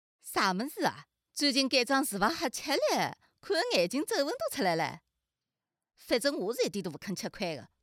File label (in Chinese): 女播配音员; 上海篇